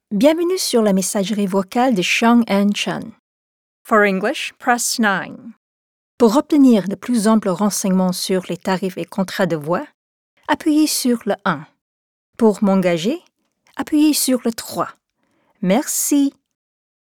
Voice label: Demo FR